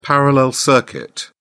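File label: "parallel circuit", British English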